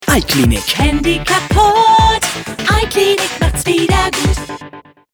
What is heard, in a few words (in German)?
JINGLES CLAIMS; iKlinik